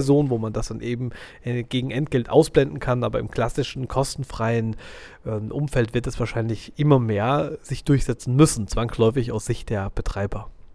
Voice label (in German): werbung